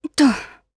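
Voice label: Vox Landing, Japanese; Glenwys; kingsraid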